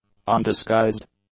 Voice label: "undisguised", English; adjectives

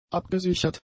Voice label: "abgesichert", German; adjectives

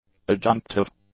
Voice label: "adjunctive", English; adjectives

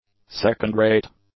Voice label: "second-rate", English; adjectives

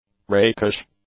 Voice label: "rakish", English; adjectives

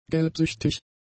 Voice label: "gelbsüchtig", German; adjectives

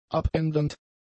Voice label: "abändernd", German; adjectives

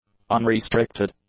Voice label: "unrestricted", english; adjectives

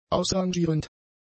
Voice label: "ausrangierend", German; adjectives

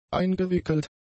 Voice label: "eingewickelt", German; adjectives

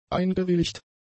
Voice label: "eingewilligt", German; adjectives

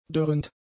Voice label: "dörrend", German; adjectives

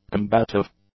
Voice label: "combative", English; adjectives

